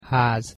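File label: "haz", Hungarian pronunciation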